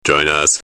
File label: Join us, Vocals